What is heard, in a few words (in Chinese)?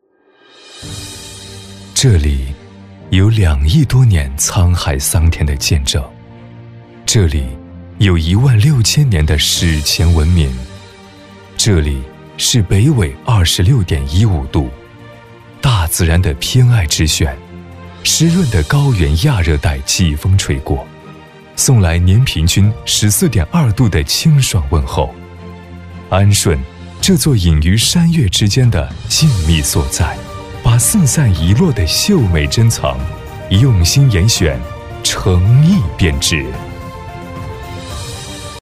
男 专题 大气 抒情 旅游宣传片; 安顺; 中央电视台CCTV8台标声